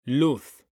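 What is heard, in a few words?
"luz", Spanish, male; mekanta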